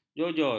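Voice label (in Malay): "jojol", Malay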